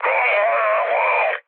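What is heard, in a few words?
Italian damaged pilot mood low, speech; War Thunder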